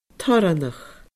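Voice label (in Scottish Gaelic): "torranach", Scottish Gaelic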